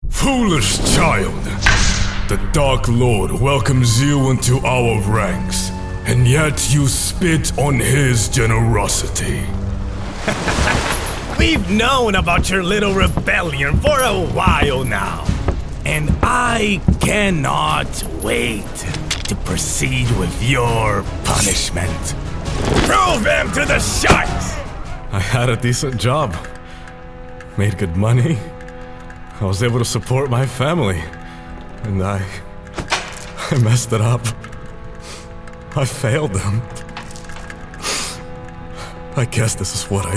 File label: Character; Orlando, United States